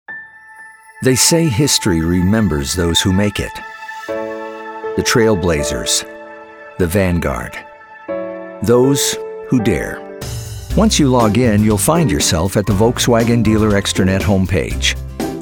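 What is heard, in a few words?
commercial, Voiceover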